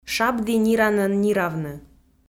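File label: "шабді ниранӧн ниравны", Komi, female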